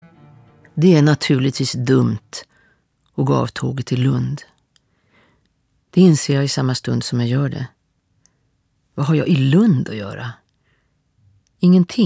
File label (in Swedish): ljudbok